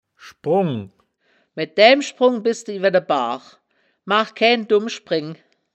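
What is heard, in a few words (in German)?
"schbrungk", wittlicher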